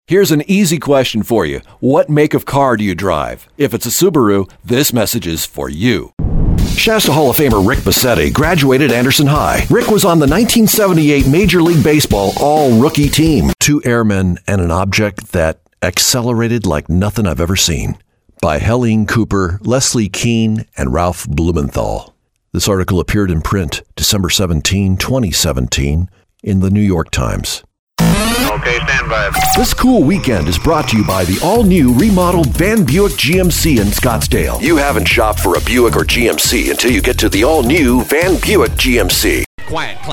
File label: voice demo